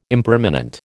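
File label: "impermanent", American English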